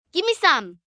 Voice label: Gimme Some, 1 girl, 9 yrs; Talking; VoiceBox

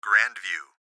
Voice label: Gps Voice, Male; Grand View; Recorded Voice

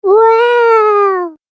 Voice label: Cartoon Game Voice W-o-w

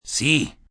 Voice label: "Yes", foreign, male, Spanish; Response; VoiceBox

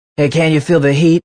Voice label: Can U Feel Heat, Radio, Male

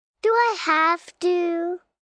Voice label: Whine Do I Have To, 1 girl, 5 yrs; Whining Sound Effects

